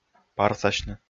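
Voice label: "парсасьны", Komi, male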